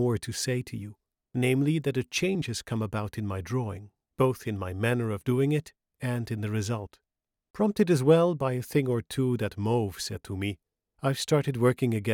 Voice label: Letter to Theo English; Nethervoice